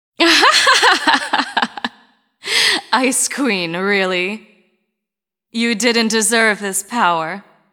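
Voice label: cinematic voice, Spanish; lineage2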